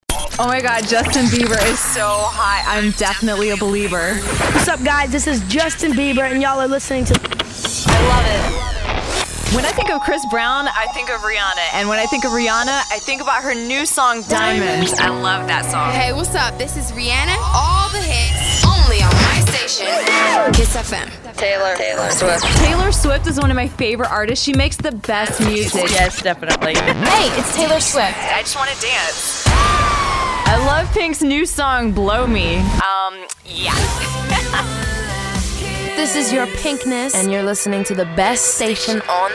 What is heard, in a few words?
Radio Imaging CHR